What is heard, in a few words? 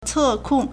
"ce4kong4", Chinese